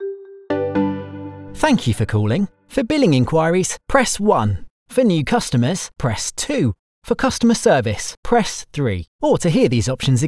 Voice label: IVR Telephony